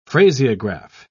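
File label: "phraseograph", British English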